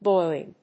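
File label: "boiling", English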